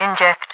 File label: inject, overwatch radio voice; Half Life 2